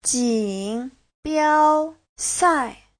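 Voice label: "jǐnbiāosài", Chinese; 锦标赛; thi đấu tranh giải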